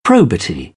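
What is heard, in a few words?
"probity", British English; AmericanBritish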